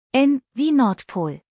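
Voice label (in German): "nordpol", German; buchstabierung alt; N wie Nordpol; Buchstabieren Sie bitte!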